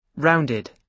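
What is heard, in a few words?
"rounded" English, British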